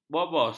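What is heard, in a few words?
"bobos", Malay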